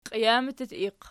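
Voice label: "qijamiq" nominative, Archi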